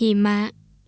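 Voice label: "heemaa", thai; snow; he-ma; hima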